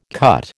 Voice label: "cut", American

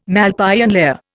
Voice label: "Malpighian layer", Indian

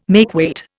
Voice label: "makeweight", Indian

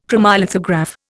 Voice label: "chromolithograph", Indian

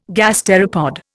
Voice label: "gasteropod", Indian

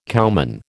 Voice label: "cowman", American

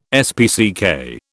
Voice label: "SPCK", British

